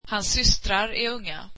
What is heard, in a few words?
swedish his sisters are young